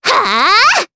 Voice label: Vox Attack jp; Annette; kingsraid